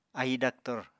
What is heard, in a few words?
"ahi daktor", Apatani